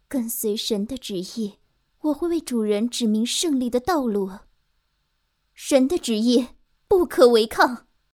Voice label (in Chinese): 少女温柔